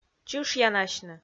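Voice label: "чышъянасьны", Komi-Zyrian, female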